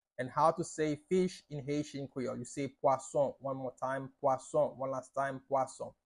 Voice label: How to say Fish in Haitian Creole – Pwason pronunciation by a native Haitian teacher